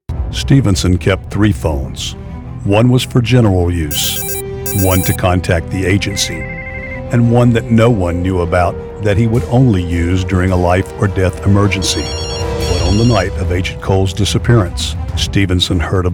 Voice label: Secret Phone Dramatic Suspense, Professional Male Voice Actor